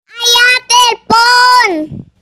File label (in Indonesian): nada dering; ayah telepon